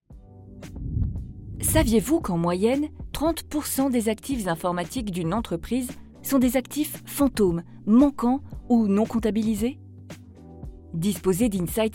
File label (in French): VO Corporate; Ivanti neurons